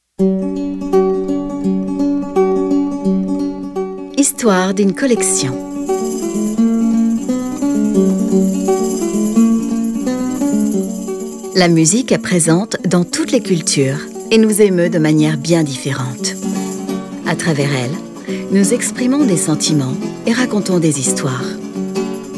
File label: audioguia french; delamusica